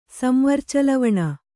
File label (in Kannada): "ಸಂವರ್ಚಲವಣ", Kannada